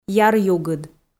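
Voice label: "яръюгыд", Komi-Zyrian, female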